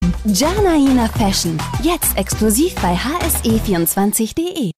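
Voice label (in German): Werbung Neutral; Fremdtake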